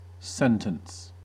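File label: "sentence", American English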